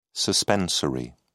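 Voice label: "suspensory", British English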